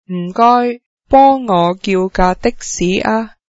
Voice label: please call a taxi for me, cantonese

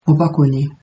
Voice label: i dont know, mauritian creole

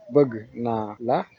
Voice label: i love you, wolof

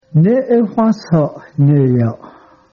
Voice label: goodbye cheyenne

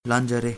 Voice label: sorry, manipuri

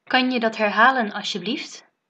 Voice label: say again, dutch

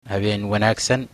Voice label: goodnight, somali

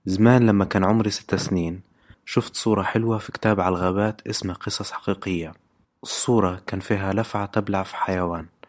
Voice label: libyan arabic

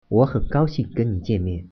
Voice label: meet, mandarin

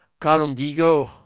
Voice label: bon appetit, Breton; Kalon digor!

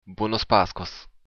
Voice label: happy easter, occitan

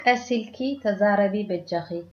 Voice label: slowly, tigrinya

